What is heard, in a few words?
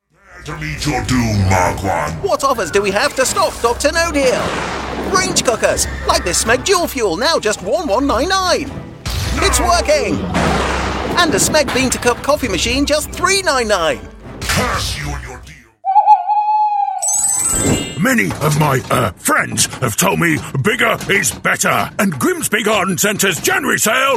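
characters, Friendly English Voice With Yorkshire Accent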